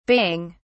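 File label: "baying", English, British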